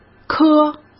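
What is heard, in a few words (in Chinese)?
"磕", Mandarin; chinese-flashcards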